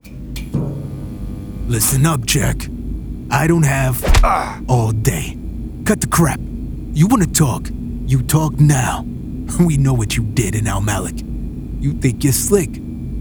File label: Character; voiceover demos